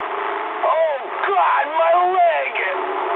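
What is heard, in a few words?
damaged pilot mood medium, speech English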